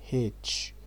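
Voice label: "H", Nigerian Pidgin, male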